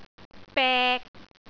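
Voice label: "bek3", Cantonese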